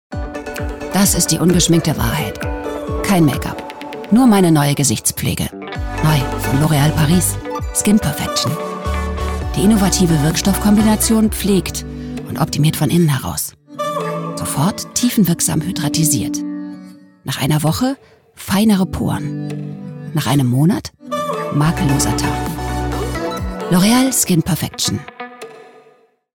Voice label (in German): Stimmdemo Werbung; L Oreal